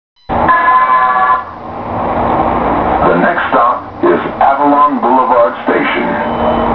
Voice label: avalon, Metro Green Line PAs